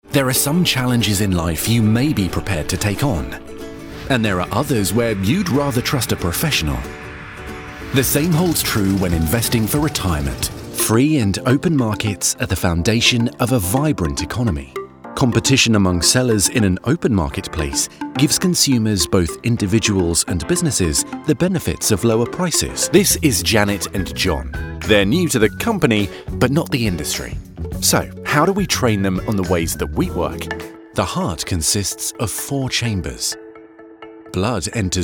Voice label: corporate explainer